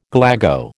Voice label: "galago", American